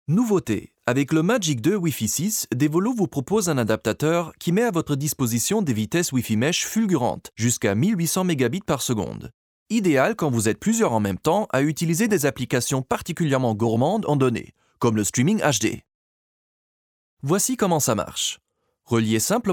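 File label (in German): Erklaervideo französisch ohne Musik Informatik; Devolo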